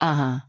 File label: Female Human Exchange; Cariel; Scabbs